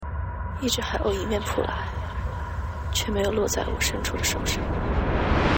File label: chinese